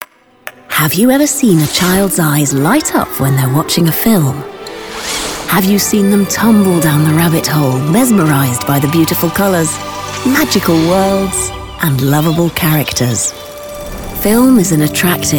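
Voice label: Storytelling, friendly, engaging. Promo for children's film platform, English NEUTRAL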